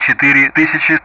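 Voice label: Speech Sound Russian; DCS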